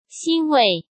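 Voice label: "欣慰", Mandarin Chinese; hsk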